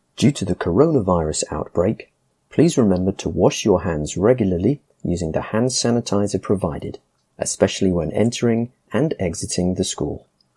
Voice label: Schools coronavirus wash hands male voice